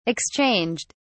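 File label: "exchanged", British English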